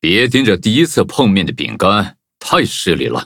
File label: loading, zh-hant; cookierunwiki